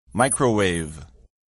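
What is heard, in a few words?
"microwave", English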